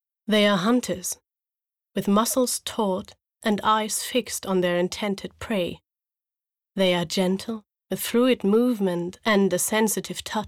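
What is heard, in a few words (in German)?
image englisch, sprecher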